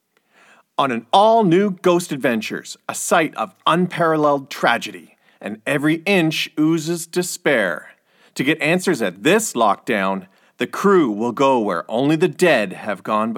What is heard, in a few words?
Lifestyle Narration; Voice Over